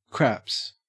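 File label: "craps", Australian English; pronunciations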